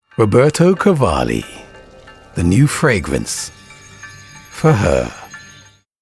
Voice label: Commercial Perfume